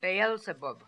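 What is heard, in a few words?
"Beelzebub", pronunciations; Fourth Way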